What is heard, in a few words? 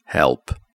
"help", English